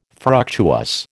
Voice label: "fructuous", American